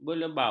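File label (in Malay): "belebau", Malay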